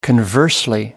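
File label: "conversely", British English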